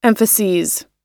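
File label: "emphases", American English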